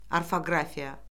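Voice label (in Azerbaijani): "orfoqrafiya", Azerbaijani; Orfoqrafiya Lüğəti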